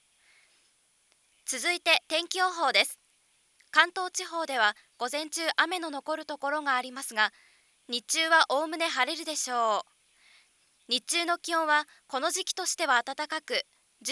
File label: speech noise; jp